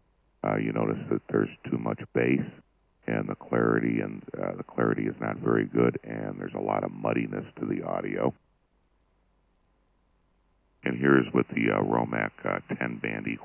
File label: Yaesu MD-1